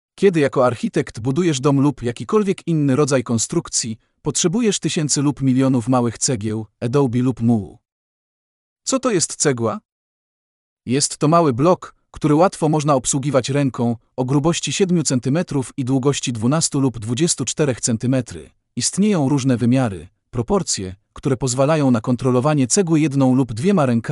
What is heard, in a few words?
POLISH